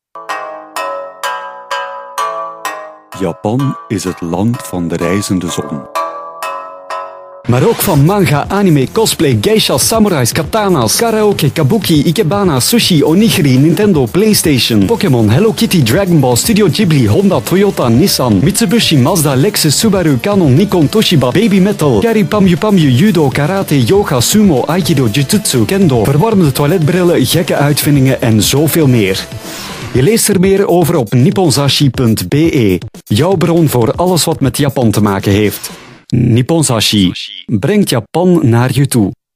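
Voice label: Dutch, male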